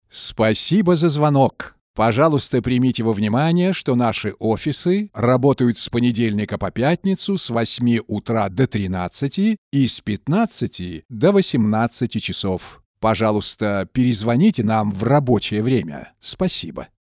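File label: russian voice ivr, Powerful Warm Male Voice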